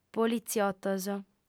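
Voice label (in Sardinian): "politziotos", female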